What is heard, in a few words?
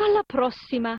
italian voice; BALLY; CORVETTE; Son Corvette Original